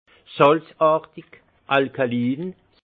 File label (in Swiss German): "salzartig", alsace; vin; alcalin